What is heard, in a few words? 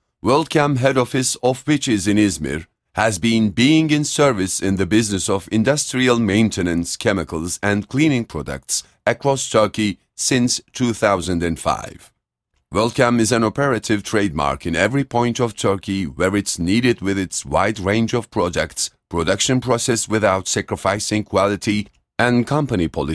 eng